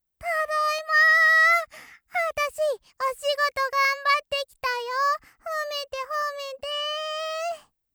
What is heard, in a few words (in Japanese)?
ロリ ただいま